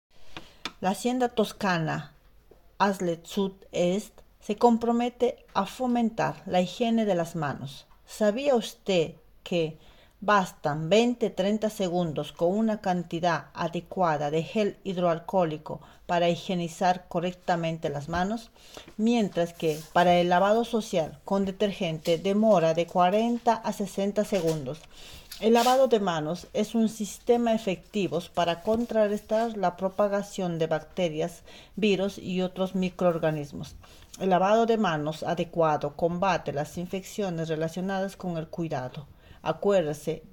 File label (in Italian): spagnolo